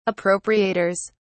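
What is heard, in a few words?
"appropriators", British English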